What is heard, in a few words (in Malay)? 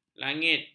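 "langit", Malay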